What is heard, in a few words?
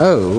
"o", male British